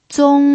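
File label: "zong1", Mandarin Chinese pinyin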